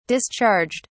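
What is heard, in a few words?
"discharged", English, British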